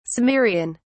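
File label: "cimmerian", British English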